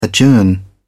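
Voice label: "adjourn", British English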